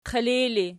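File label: "qilij" ergative, Archi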